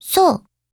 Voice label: AGREE, JP